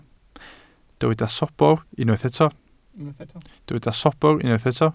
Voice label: "sobr", Welsh

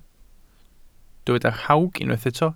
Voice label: "rhawg", Welsh

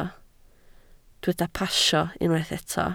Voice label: "pasio", Welsh

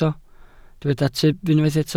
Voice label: "tyb", Welsh

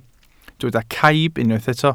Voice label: "caib", Welsh

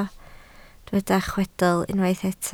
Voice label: "chwedl", Welsh

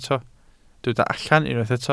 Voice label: "allan", Welsh

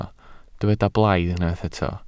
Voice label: "blaidd", Welsh

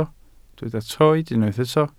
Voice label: "troed", Welsh

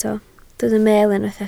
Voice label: "meel", Welsh

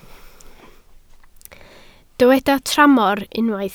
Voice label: "tramor", Welsh

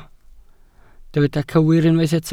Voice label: "cywir", Welsh

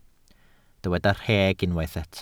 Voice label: "rheg", Welsh

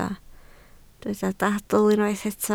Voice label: "dadl", Welsh

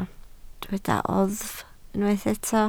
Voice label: "oddf", Welsh

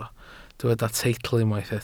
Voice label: "teitl", Welsh

